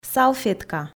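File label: "салфетка", Komi, female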